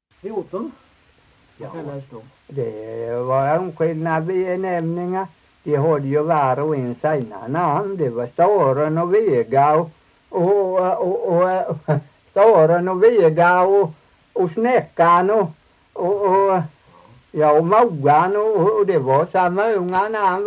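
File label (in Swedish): Torhamn Blekinge, dialekter; Folkminnen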